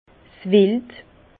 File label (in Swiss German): "wild", Bas Rhin; vin; gibier